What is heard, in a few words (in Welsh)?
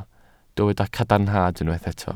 "cadarnhad", Welsh